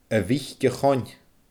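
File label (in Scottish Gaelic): "a mhic a choin", Scottish Gaelic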